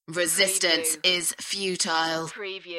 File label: UK female; beanstalk-audio